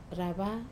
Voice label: "rava" to below, Wamesa